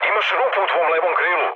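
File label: Serbian left wing mood low, speech; War Thunder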